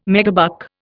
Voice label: "megabuck", Indian

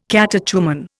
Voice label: "catechumen", Indian

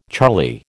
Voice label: "charlie", American

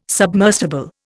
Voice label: "submersible", Indian

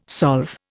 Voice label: "solve", Indian

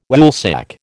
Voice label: "Woolsack", American

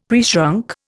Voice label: "preshrunk", Indian; Dictionary

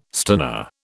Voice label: "stunner", British

